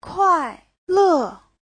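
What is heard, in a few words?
"kuàilè", Mandarin Chinese; 快乐; (tính từ) vui vẻ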